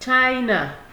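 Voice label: "china", Nigerian Pidgin, female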